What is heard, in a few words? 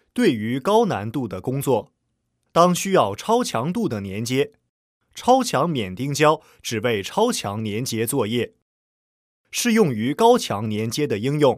chinese; VectorRapid; lektoring